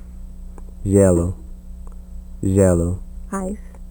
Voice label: Portuguese ʒ; The voiced post alveolar fricative highlighted in the word gelo which means 'house'.

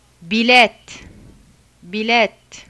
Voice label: :i: vowel sound Turkish; bilet; bilét̪ʰ; 'ticket'

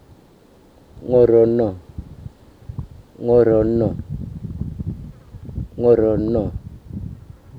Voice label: "ngurrunu", Murrinh-Patha; ŋurunu; Rhotics; ŋuɽunu

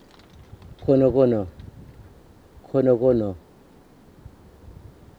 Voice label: "kunugunu", Murrinh-Patha; kunuɡunu

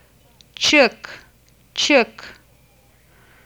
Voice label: tʃ sound Turkish; the Turkish word çık. Its IPA spelling is tʃɯ́kʰ. The English translation for this word is 'go out'.

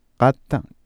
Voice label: "kata", cusco quechua

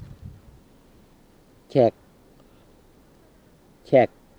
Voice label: "thek", Murrinh-Patha; cek

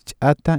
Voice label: chata ejective, Cusco Quechua; ͜͜͜͜͜͜ʈʃʼ/ ch’ata

